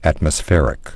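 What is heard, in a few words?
"atmospheric", English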